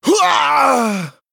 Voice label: Male Troll Death